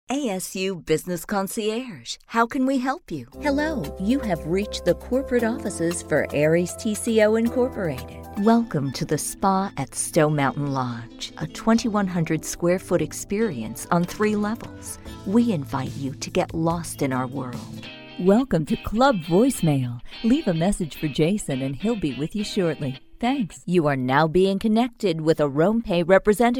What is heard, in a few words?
US IVR